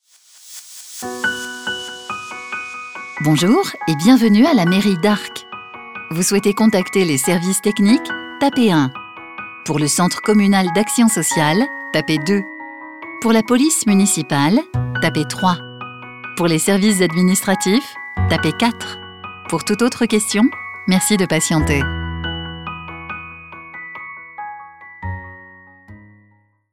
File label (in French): attente telephonique voix off; ville d'arques